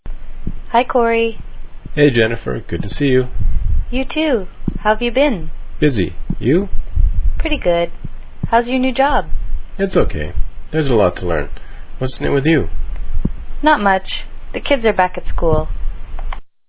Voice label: greetings conversation; greeting someone you know